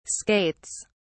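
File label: "skates", British English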